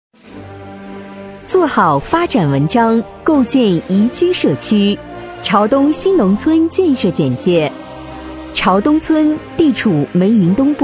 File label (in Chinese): 【专题】大气干练, 女; 潮东村新农村建设